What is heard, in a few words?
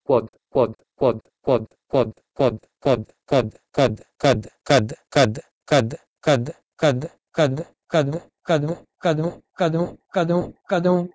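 Proto-Indo-European kwod to Punjabi kado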